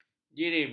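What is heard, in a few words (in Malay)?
"jirim", Malay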